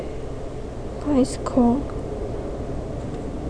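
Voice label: "bicycle", spoken word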